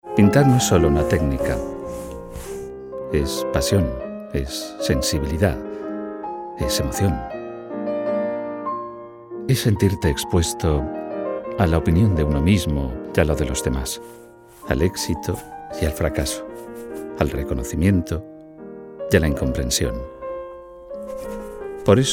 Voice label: male spanish documentary comercial

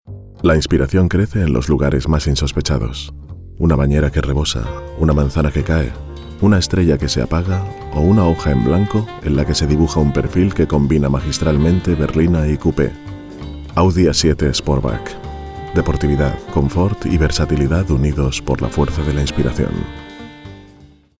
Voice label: male spanish tv comercial; ideasonora

